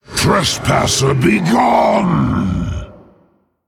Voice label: Male Elemental Attack

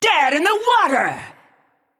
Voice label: Female Naga Attack

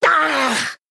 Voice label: Female Dwarf Death

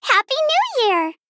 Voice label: Female Gnome HAPPY NEW YEAR LUNAR

